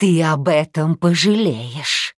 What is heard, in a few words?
THREATEN